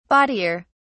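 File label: "bawdier", British English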